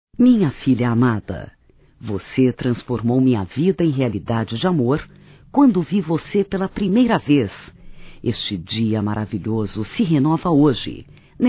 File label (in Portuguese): Aniversário Feminino, Telemensagens; Aniversário Filha Feminino